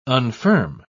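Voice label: "unfirm", English, uk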